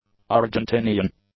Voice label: "Argentinian", English; nouns